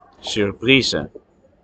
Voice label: "Surprise", Dutch